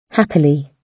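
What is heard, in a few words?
"happily", British English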